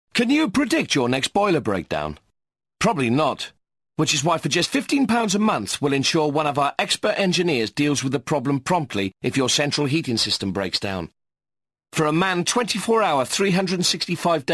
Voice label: Commercial; British gas; Vocal Point

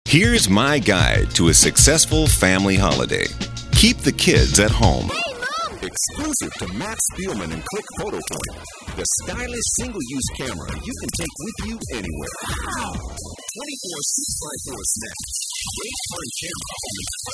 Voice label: Commercial Straight reads

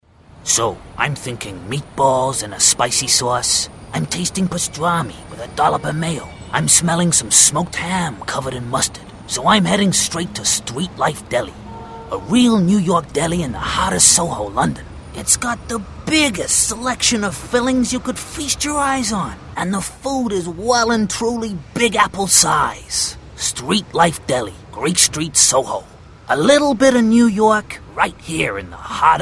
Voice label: Character Commercials

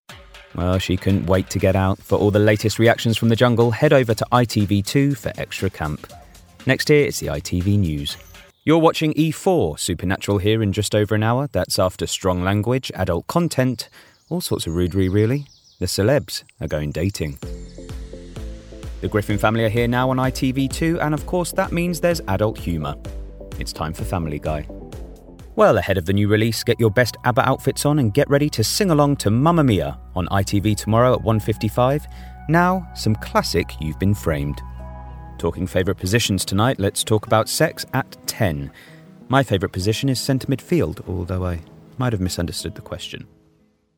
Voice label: Continuity

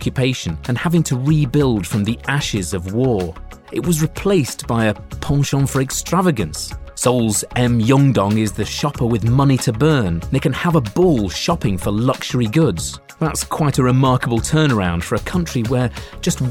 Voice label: Korea Documentary; Joe Fattorini

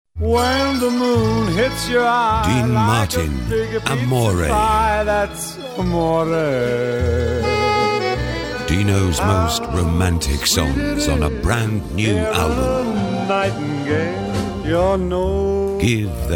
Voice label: Commercial; Dean martin

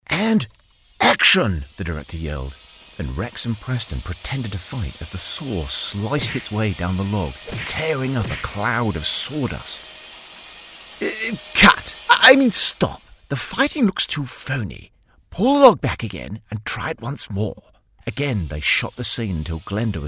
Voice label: Kids Book Narration

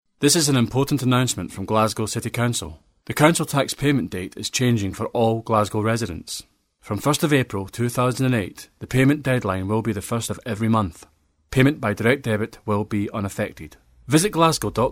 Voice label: Commercial; Glasgow city council

